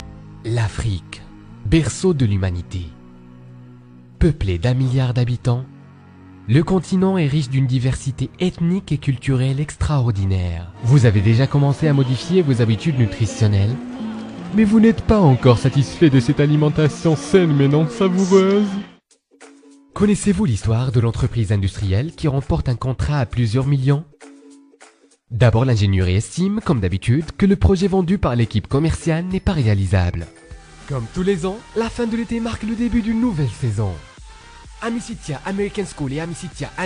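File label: French, male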